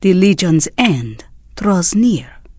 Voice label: Draenei Female Military Greeting